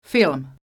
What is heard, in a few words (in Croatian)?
"film", Croatian